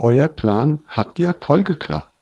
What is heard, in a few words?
waveglow; Thorsten-Voice